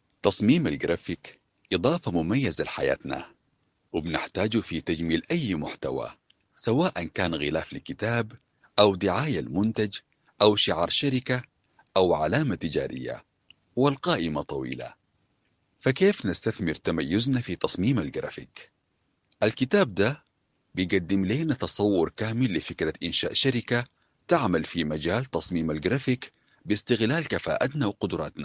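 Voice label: Arabic Sudanese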